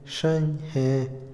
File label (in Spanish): profundo, Otomí, hombre; INALI